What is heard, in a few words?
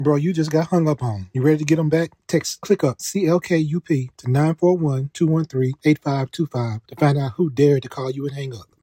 ivr prompt; sms-consent